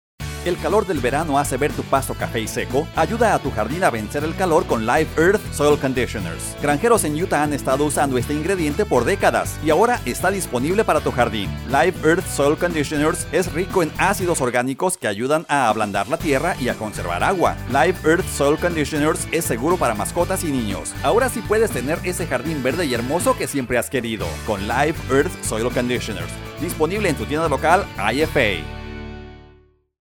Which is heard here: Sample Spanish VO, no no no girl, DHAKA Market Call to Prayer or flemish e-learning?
Sample Spanish VO